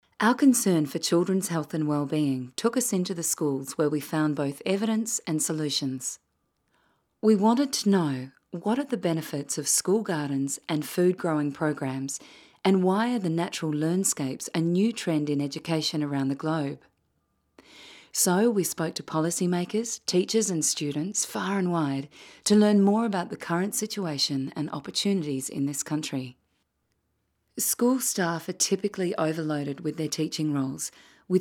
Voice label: Narration